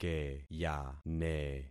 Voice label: "keyane", concat syllables; Affixing experiment

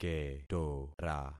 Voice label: "ketora", concat syllables; Affixing experiment

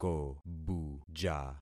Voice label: "kobuja", concat syllables; Affixing experiment

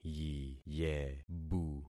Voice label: "yiyebu", concat syllables; Affixing experiment

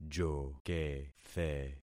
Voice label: "jokethe", concat syllables; Affixing experiment

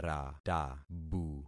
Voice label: "ratabu", concat syllables; Affixing experiment

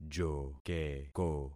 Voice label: "jokeko", concat syllables; Affixing experiment

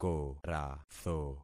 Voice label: "koratho", concat syllables; Affixing experiment

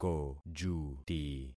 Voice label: "kojuti", concat syllables; Affixing experiment

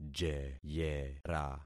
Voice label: "jeyera", concat syllables; Affixing experiment

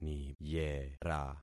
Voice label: "niyera", concat syllables; Affixing experiment

